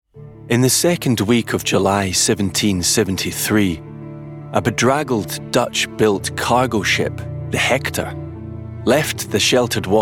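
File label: documentary; 20s-30s. Male. Scottish.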